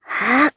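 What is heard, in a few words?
Vox Casting Japanese; Hanus; kingsraid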